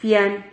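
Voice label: "fian", Spanish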